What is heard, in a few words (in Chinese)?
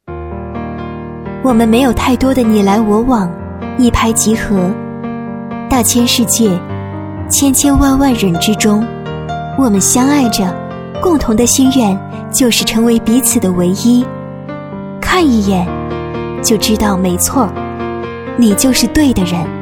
女-旁白-（娓娓道来）